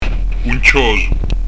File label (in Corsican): "un chjosu", Corse; un tj'òzu